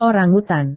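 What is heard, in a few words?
"orangutan", Indonesian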